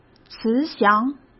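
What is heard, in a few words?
"慈祥", Mandarin Chinese; hsk; chinese flashcards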